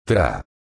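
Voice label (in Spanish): "tra", Spanish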